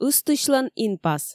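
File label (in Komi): "ыстысьлӧн инпас", Komi, female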